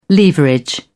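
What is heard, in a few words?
"leverage", British English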